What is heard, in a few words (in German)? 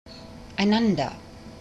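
"einander", German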